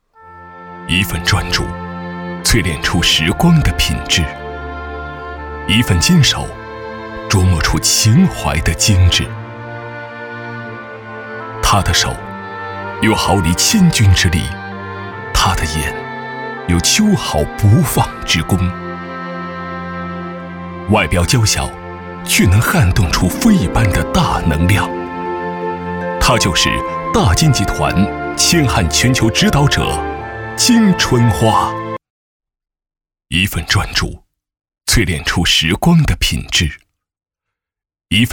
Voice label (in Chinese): 男 人物介绍; 金春花